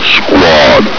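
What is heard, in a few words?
"squad", male; hl2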